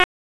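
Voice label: Police District Tactical, p25; Raleigh; Northeast District Tac 1; wake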